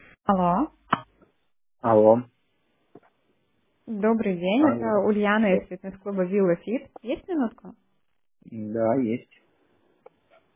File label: robo voice